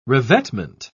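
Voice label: "revetment", British English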